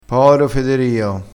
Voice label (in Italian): "poro", dialettale, crespinese